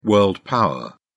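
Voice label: "world-power", British English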